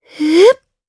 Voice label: Vox Casting jp; Yuria; kingsraid

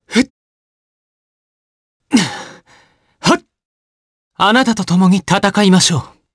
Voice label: vox get, jp; voices heroes jp; Arch; kingsraid

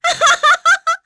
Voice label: Vox Happy; Nicky; kingsraid; voices heroes en

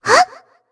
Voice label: Vox Jump jp; voices; heroes; Shea; kings raid

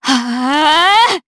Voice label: Casting, jp; DarkFrey; kingsraid

